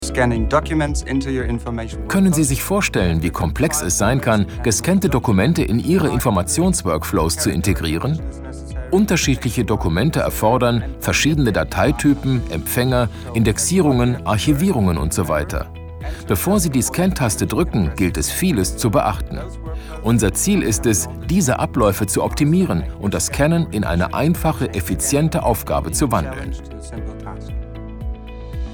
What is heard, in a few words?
Image VO; E-Business